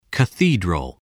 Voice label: "cathedral", spelling